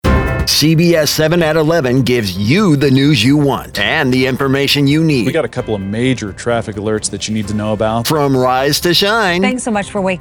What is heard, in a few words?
PROMO; CBS 7